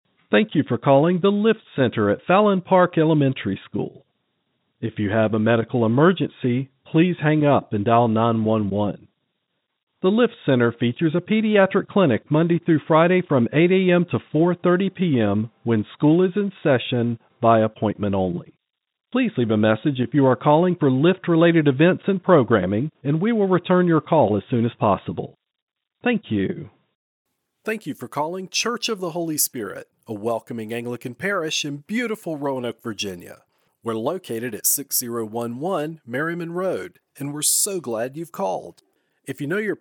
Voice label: IVR